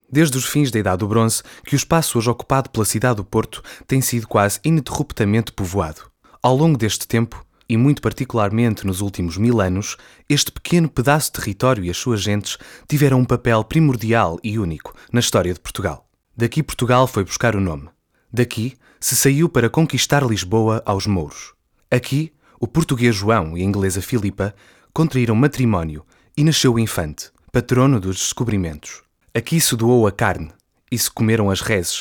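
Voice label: Narration, Portuguese, European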